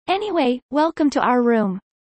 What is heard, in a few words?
fast